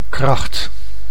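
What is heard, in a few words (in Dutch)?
"kracht", Dutch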